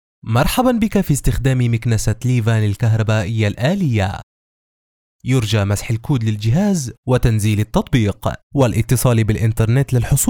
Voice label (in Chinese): 语音提示